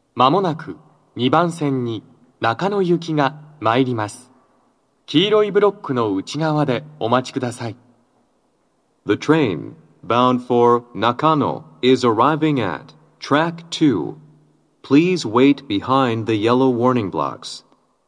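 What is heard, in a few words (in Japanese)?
接近放送, 男声, 両面箱型での収録です。; 放送は「中野行き」です。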